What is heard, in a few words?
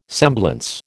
"semblance", American